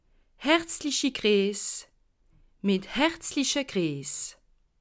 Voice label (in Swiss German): "herzlich gries", alsace